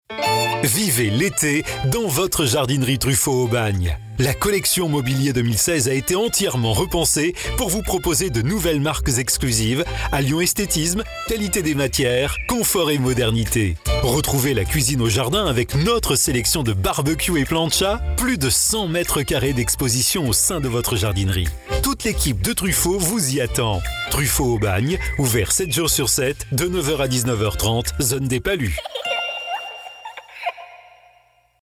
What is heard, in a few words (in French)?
VOIX OFF